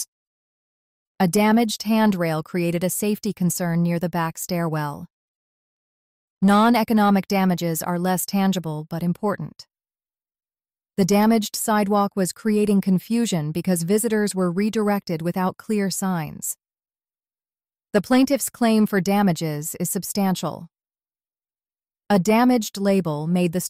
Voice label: dictation